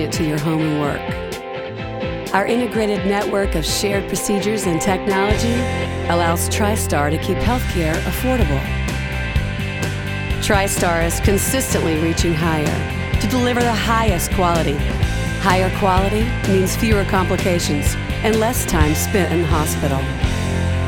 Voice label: voice over